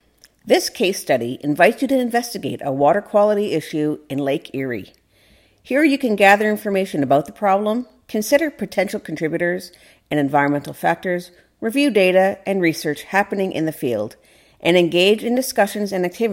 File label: narration case study intro